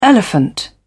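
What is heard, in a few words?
"elephant", British English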